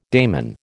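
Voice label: "daimon", American English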